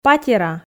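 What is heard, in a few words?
"патера", Komi, female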